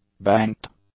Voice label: "banked", English; adjectives

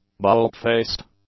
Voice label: "bald-faced", English; adjectives

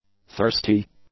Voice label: "thirsty", English; adjectives

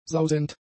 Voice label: "sausend", german; adjectives

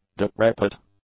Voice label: "decrepit", english; adjectives

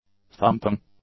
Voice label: "thumping", English; adjectives

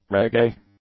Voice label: "reggae", English; nouns

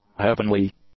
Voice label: "heavenly", English; adjectives

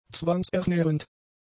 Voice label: "zwangsernährend", German; adjectives

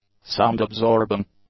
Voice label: "sound-absorbing", english; adjectives

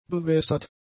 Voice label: "bewässert", German; adjectives

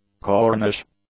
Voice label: "Cornish", english; adjectives

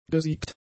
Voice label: "gesiegt", German; adjectives

